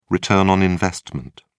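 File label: "return on investment", British English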